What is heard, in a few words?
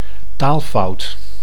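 "taalfout", Dutch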